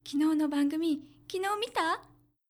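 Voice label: female; 昨日の番組、昨日見た？; パワフル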